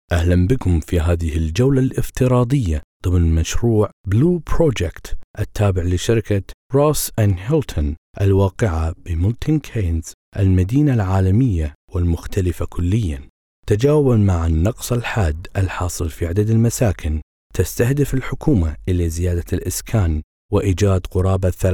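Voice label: Arabic Kuwait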